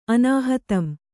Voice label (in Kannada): "ಅನಾಹತಂ", Kannada